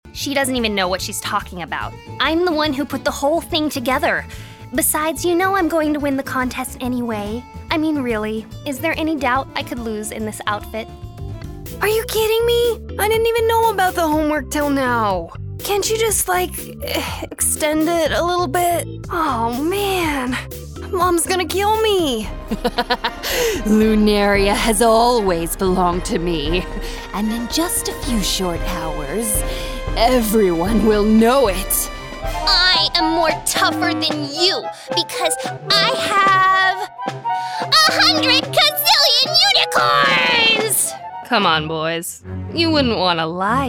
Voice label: Character

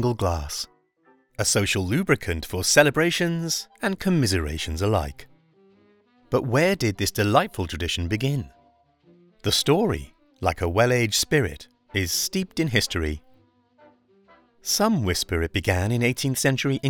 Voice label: Narration

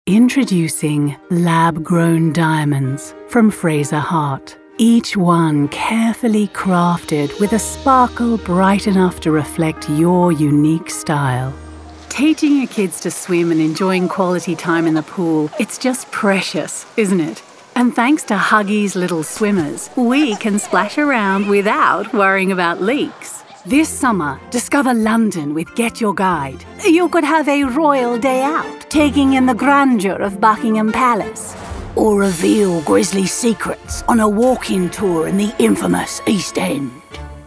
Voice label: commercial showreel

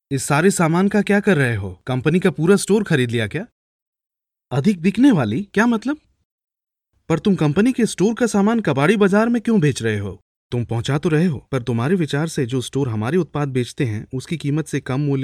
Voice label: corporate training video dub Hindi

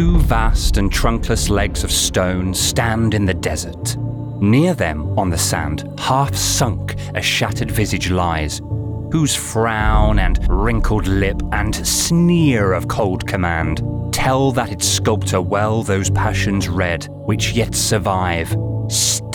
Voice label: Narrative

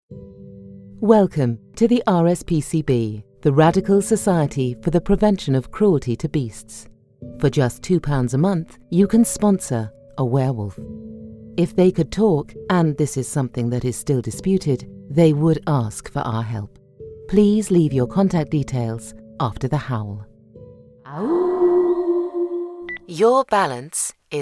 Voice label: ivr british voiceover

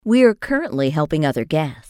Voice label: Phone IVR

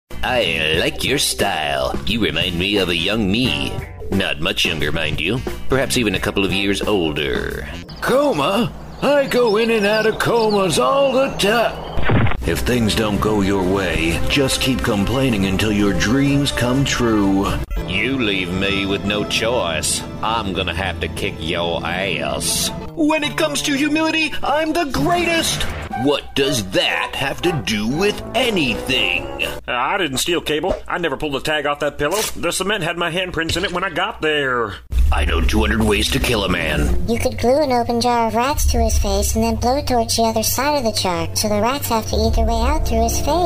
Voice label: Animation

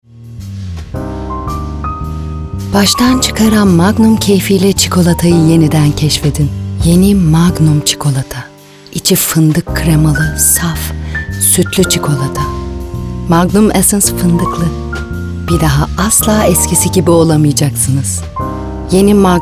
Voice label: Commercial Turkish; Magnum essense

